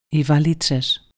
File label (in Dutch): "koffer", gr